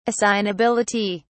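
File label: "assignability", British English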